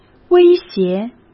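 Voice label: "威胁", Mandarin Chinese; chinese flashcards; hsk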